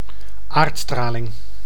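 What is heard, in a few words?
"aardstraling", Dutch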